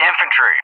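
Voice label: "infantry" English, speech; JTAC; DCS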